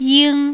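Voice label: "ying", Chinese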